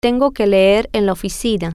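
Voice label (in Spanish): tengo que leer en oficina, Spanish, female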